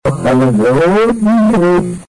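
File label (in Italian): "boccale", Italian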